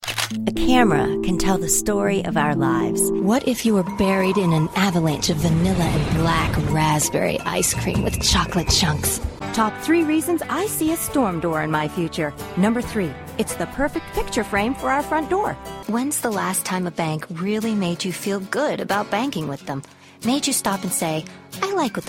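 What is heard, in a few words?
commercial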